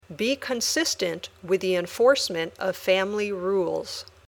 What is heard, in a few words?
Dictation